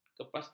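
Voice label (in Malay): "kepastian", Malay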